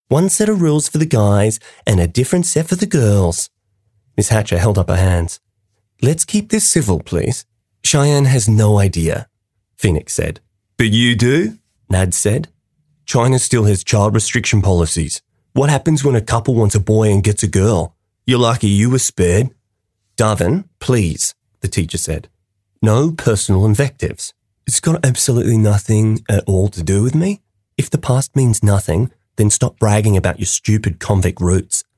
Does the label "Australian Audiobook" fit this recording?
Yes